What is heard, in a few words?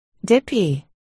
"dippy", articulation